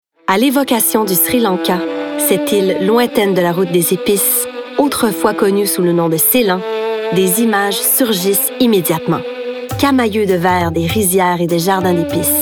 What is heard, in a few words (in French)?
Narration